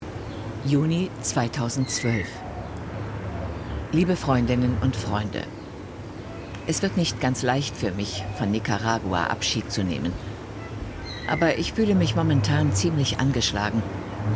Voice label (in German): Dok. film